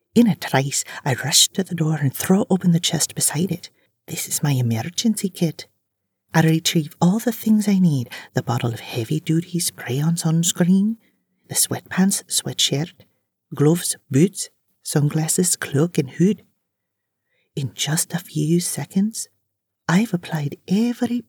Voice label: F Scottish narration Fantasy 1st POV